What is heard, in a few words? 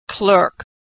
"clerk", pronunciation